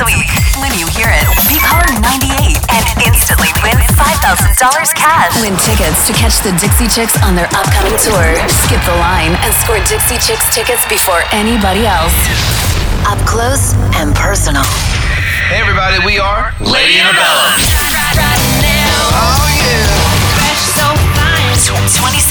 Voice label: radio women country; radio imaging

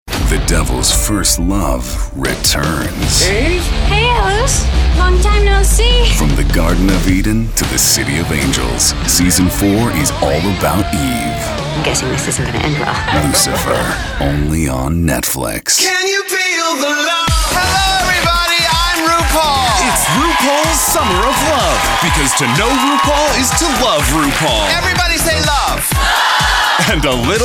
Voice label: promo men

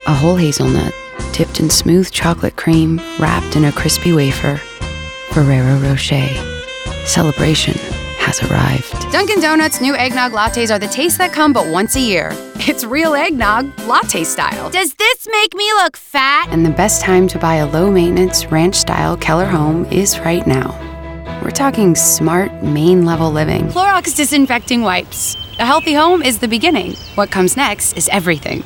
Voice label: commercial female; commercial women

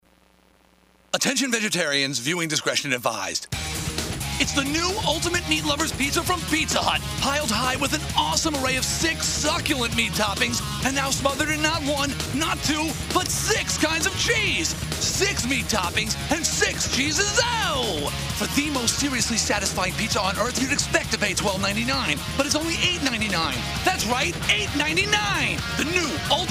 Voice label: celebrity commercial

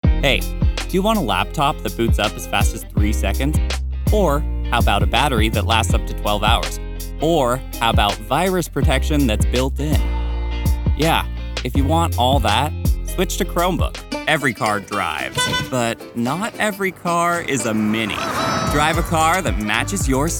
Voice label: commercial nonbinary

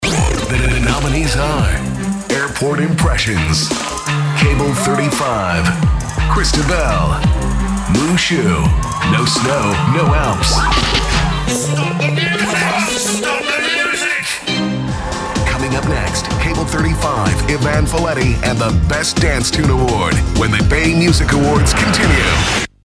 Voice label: announcers men in show; show and stadium announcers

